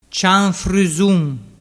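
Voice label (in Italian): "cianfrusun", dialect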